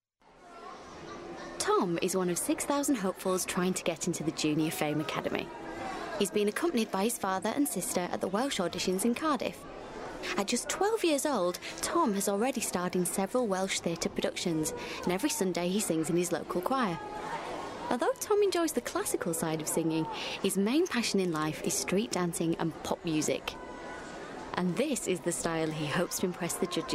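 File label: documentary; fame academy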